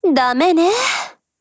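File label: LOW MOOD, Japanese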